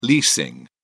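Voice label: "leasing", English, British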